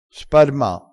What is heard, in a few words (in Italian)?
"sparma", crespinese